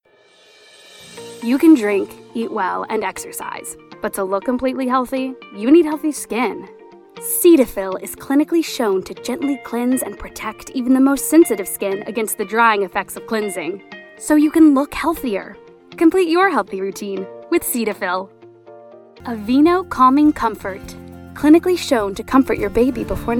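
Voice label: voice over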